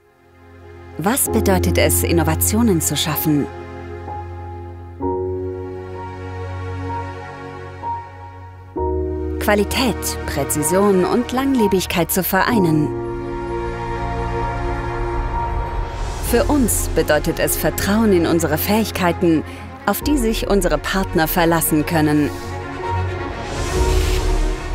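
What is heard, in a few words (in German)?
Imagefilm Ausschnitt souverän emotional; deprag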